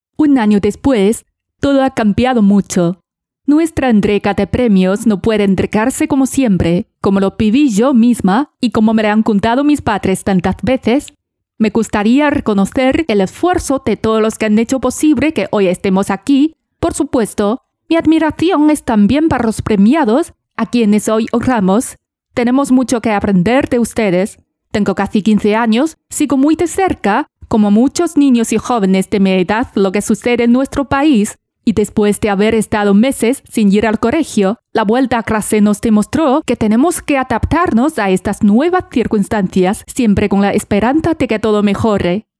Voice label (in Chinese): 西语自然演讲